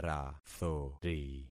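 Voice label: "rathori", concatenated syllables; Affixing experiment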